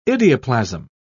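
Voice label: "idioplasm", English, British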